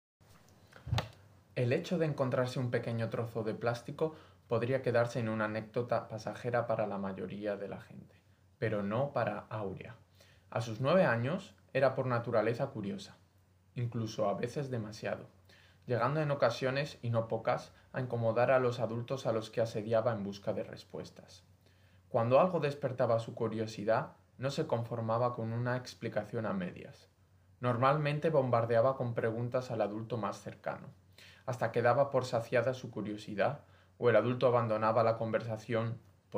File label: Spanish; wissenschaftliche kurzgeschichten